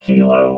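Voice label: "kilo", robot voice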